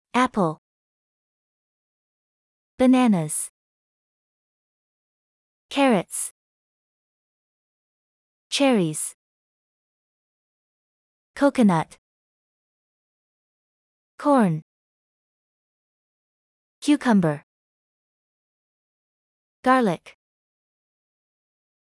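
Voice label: American; pic match fruits veg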